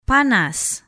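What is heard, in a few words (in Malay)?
"panas", Malay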